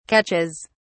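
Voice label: "catches", British English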